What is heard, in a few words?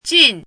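"jìn", Mandarin Chinese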